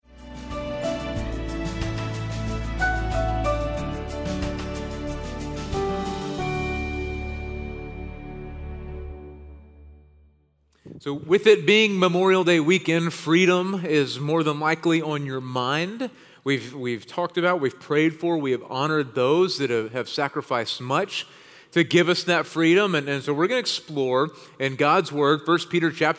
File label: Sermon English